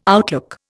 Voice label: "outlook", Indian

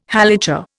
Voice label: "Halacha", Indian

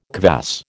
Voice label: "kvass", American

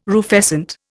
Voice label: "rufescent", Indian

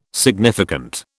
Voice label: "significant", British

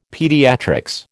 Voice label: "pediatrics", American

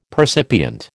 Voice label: "percipient", American

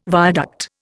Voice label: "viaduct", Indian

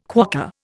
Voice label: "quokka", Indian

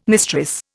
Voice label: "mistress", Indian

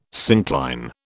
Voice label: "syncline", British

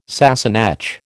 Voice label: "Sassenach", American

